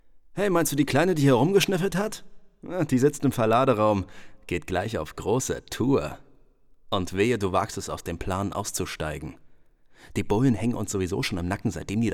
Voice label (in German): Hoerspiel